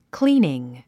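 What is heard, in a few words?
"cleaning", English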